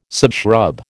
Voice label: "subshrub", American

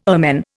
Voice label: "ermine", Indian

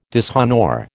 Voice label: "dishonour", American

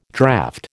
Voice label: "draught", American

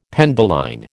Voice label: "penduline", American

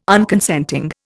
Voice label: "Unconsenting", Indian

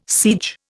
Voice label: "siege", Indian

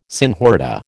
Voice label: "senhorita", American

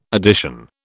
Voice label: "addition", British

